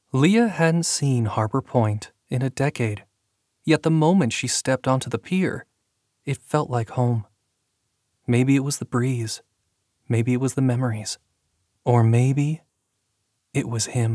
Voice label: Audiobook Romance